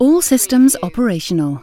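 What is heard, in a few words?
"all systems operational", British female; lynne publishing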